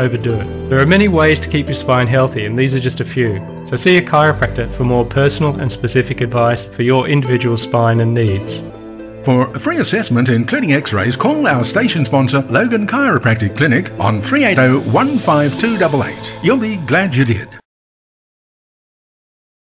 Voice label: 60 sec Radio Ad Back Pain